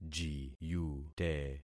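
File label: "jiyute", concatenated syllables; Affixing experiment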